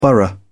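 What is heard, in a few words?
"borough", British English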